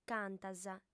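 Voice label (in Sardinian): "cantas", female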